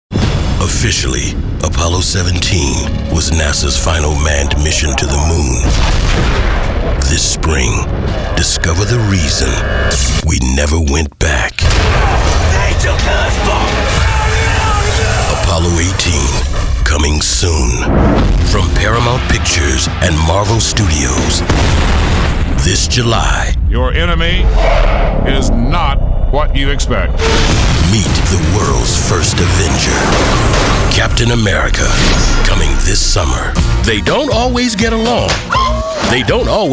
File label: Male, promos